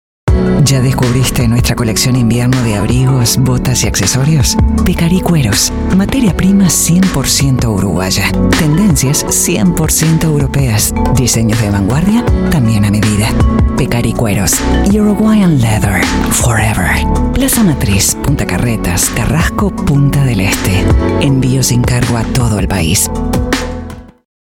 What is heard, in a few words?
Latin Spanish; Pecari